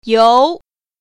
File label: "you2", Mandarin Chinese